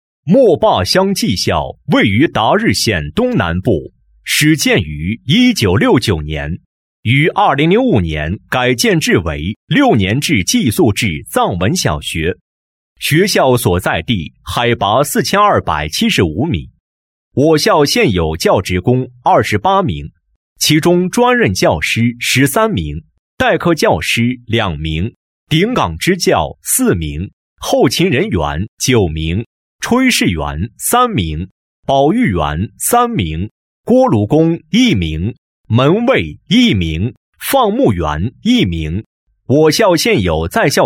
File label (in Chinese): 男, 专题, 学校专题; 莫坝乡寄校